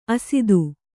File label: "ಅಸಾಧು", Kannada